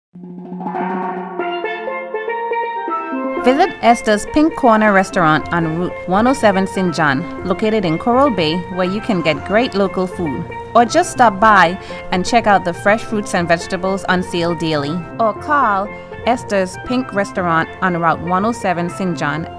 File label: Restaurant promo